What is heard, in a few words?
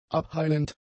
"abheilend", German; adjectives